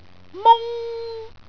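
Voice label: "mung1", Cantonese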